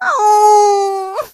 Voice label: hurt

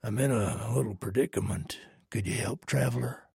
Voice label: trader male like quest offer

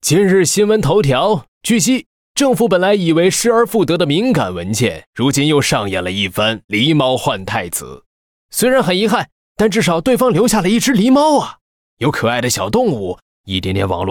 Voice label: Mandarin; 瑞奇（双点校园）; 开场白

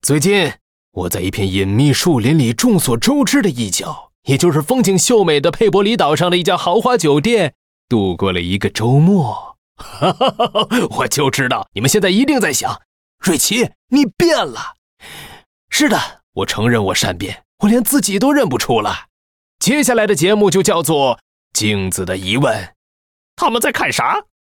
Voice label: Mandarin; 瑞奇（双点校园）

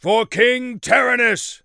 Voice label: warcry, en; 乌瑟尔（魔兽争霸3）

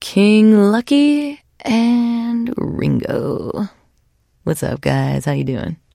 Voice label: trader monolog; 7D2D; 商人杰恩; 七日杀

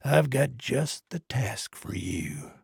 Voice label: trader male like quest offer

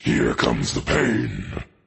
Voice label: dark army barrack taunt; 黑暗骑士; KRV

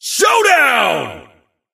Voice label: announcer vo showdown

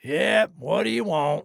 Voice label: trader male dislike greeting

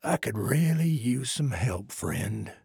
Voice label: trader male like quest offer

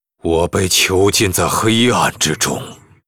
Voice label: pissed; Illidan

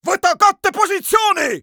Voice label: neutral

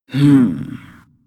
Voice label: Hero female demon hunter yes, en

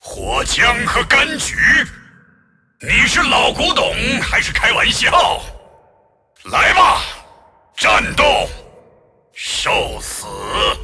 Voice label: move, zh

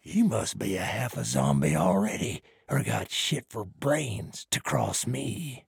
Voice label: trader male dislike quest declined

